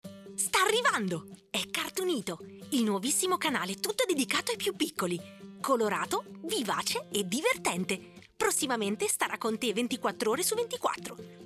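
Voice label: Italian, General; GoLocalise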